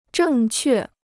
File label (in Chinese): "正确", Chinese